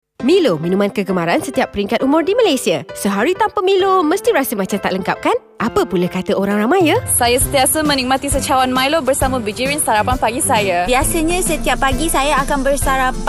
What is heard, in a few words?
Testimonial BM; MBD